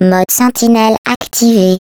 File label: Turret autosearch, fr